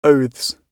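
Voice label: "oaths", British English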